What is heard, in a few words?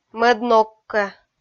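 "мӧд ног-кӧ", Komi-Zyrian, female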